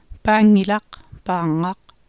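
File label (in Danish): grønlandsk paanngilaq; [pæ:ŋ:ilɑq pɑ:ɴ:ɑq]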